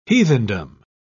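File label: "heathendom", British English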